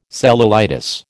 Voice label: "cellulitis", American